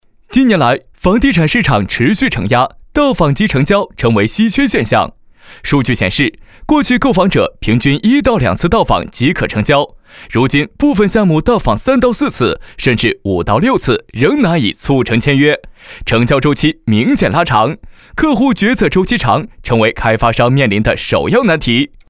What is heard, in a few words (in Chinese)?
科技, 男声、专题汇报解说配音、年轻; 装库科技团装模式